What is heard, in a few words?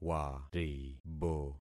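"waribo", concatenated syllables; Affixing experiment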